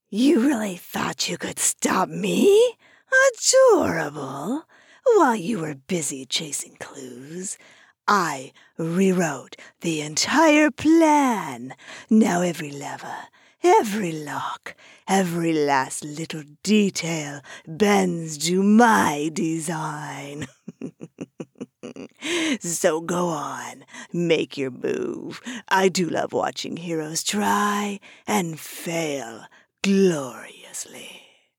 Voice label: animated villian

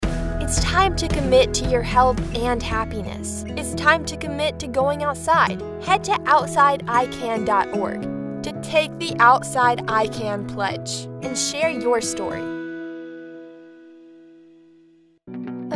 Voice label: Promo

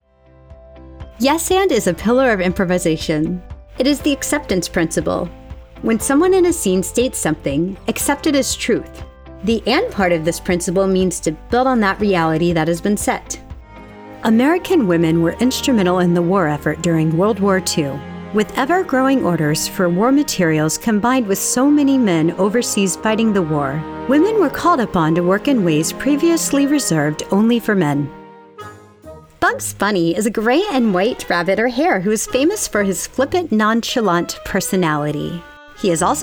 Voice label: k12 Narration

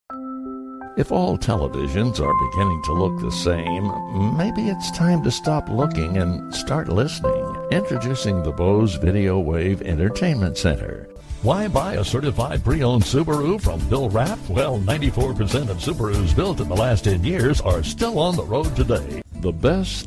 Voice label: COMMERCIALS

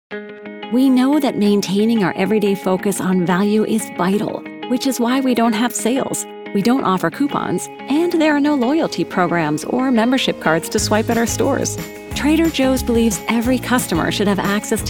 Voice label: Corporate Narration

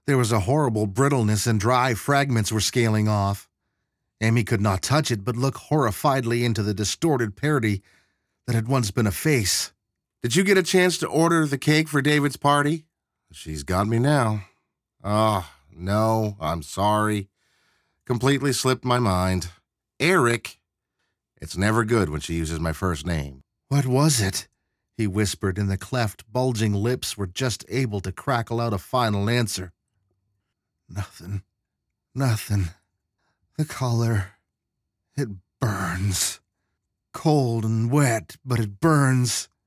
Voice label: Audiobook

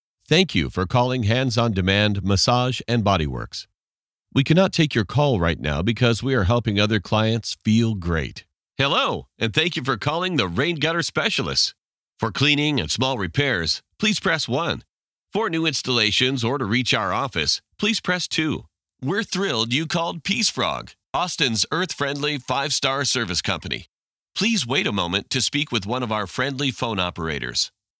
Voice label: Phone system IVR

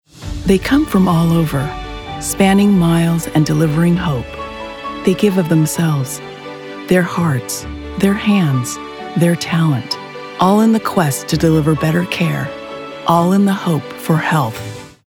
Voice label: spot; Cardinal Health

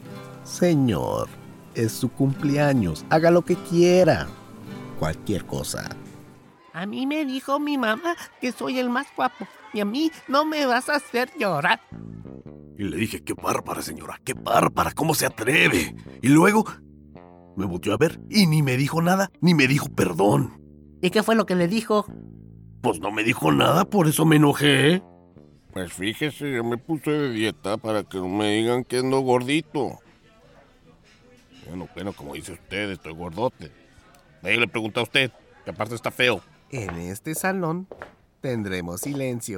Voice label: Spanish Character

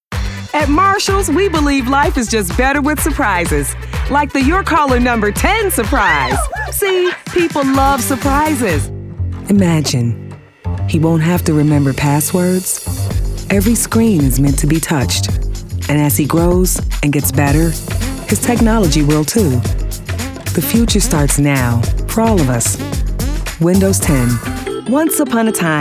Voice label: Commercial Demo

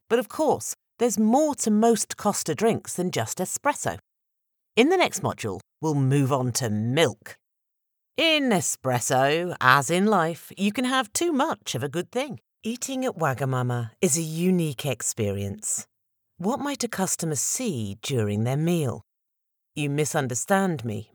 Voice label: E-learning

